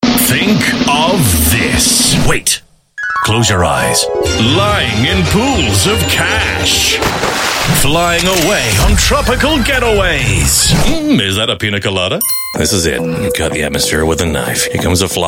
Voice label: AU English Character